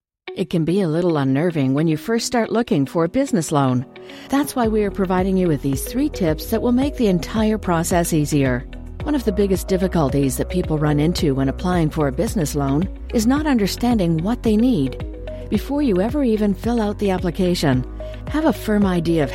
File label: english us and canada female narrative